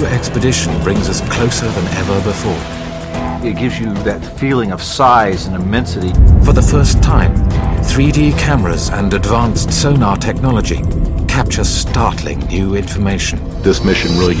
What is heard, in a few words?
narration titanic documentary